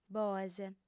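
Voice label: "boes", lingua sarda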